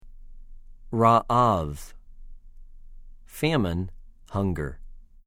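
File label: "רָעָב", Hebrew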